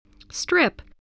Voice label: "strip", spelling